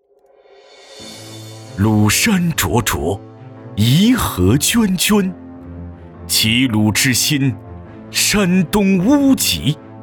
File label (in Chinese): 男, 专题, 磁性大气; 华源节能